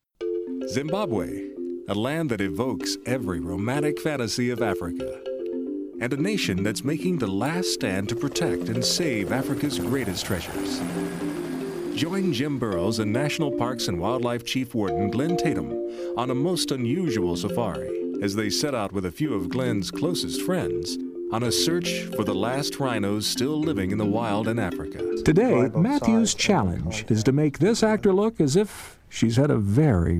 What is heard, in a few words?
Male, promos